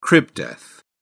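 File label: "crib death", British English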